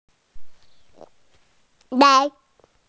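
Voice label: "lai2", Mandarin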